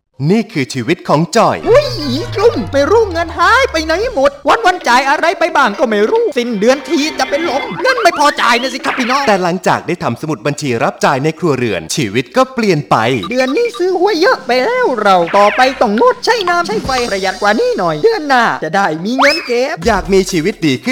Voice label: RADIO SPOT